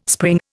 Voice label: "spring", Indian